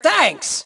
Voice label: Team Thanks, Female; T2 Female 04

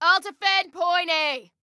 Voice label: DEFEND, Female

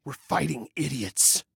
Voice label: Enemy Disarray, Male

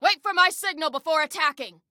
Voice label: Attack Wait, Female

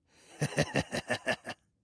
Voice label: Woohoo, Male

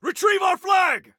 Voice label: Flag Retrieve, Soldier Male

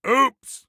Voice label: Ooops, Soldier Male; Soldier Male 03

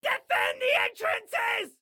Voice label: Defend Entrances, Excited Guy

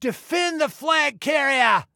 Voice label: Defend Flag Carrier, Male

